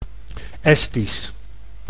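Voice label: "Estisch", Dutch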